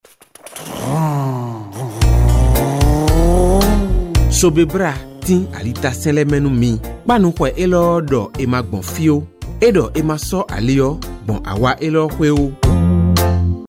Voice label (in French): CODE DE ROUTE FON